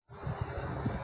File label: Voice Note